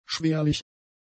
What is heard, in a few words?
"schwerlich", German; adjectives